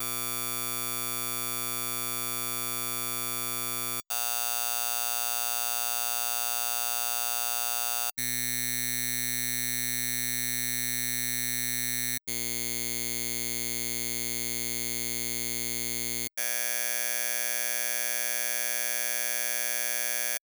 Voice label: Two tube vowels